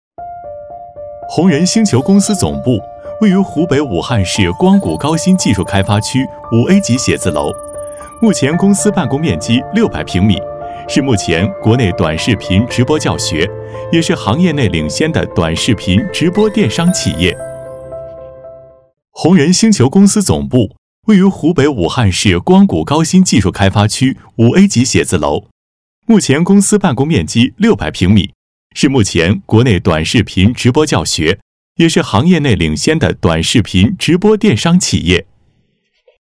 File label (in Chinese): 男 宣传片 科技感; 红人星球公司